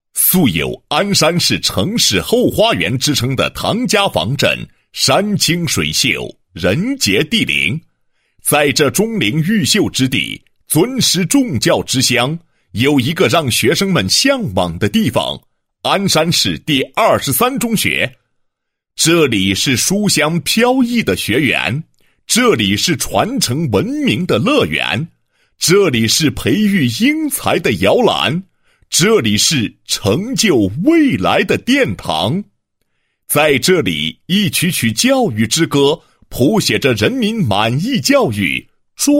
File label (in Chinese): 男 学校 宣传简介; 某市第二十三中学宣传简介